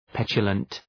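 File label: "petulant", English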